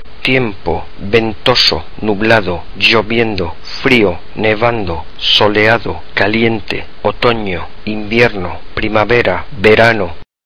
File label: spanish weather